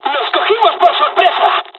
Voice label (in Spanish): dialogs spanish; War Thunder